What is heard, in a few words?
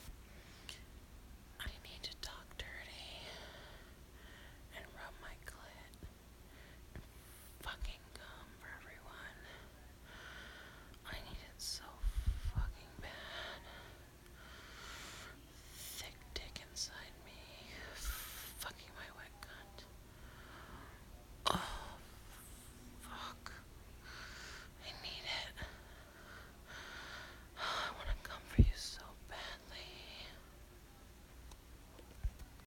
quick whisper